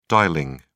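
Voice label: "dialling", British English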